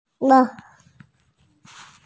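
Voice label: "chi bang", Mandarin Chinese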